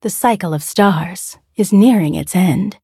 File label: levelup, en; Cookie Run